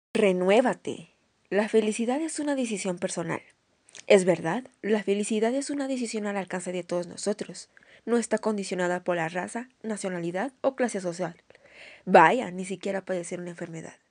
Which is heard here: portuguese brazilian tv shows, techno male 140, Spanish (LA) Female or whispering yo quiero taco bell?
Spanish (LA) Female